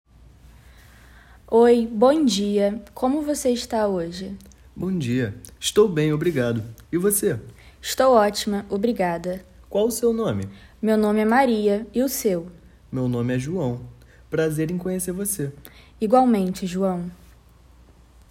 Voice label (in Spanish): Dialogo informal